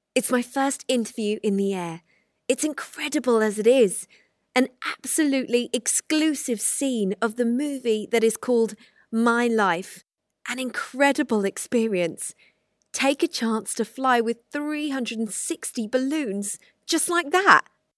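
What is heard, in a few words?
English British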